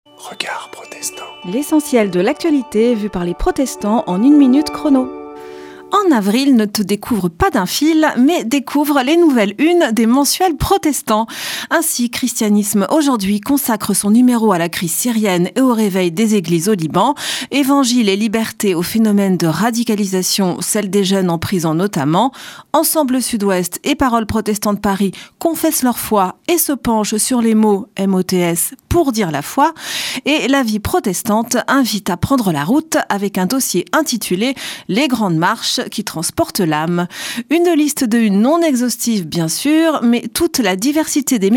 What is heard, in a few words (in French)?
Spot radio; Regards protestants